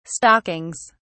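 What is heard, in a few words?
"stockings", English, British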